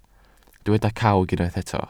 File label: "cawg", Welsh